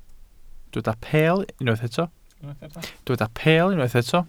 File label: "peel", Welsh